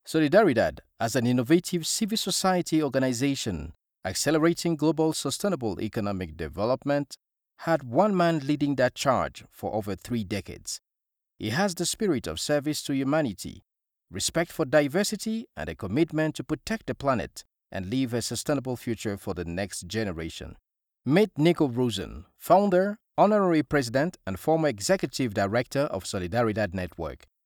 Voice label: english african documentaries